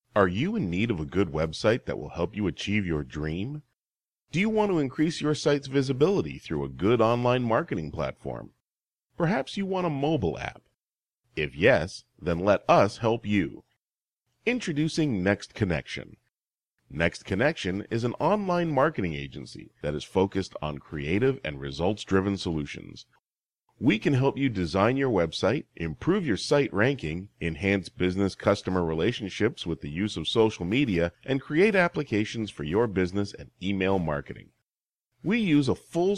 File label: USA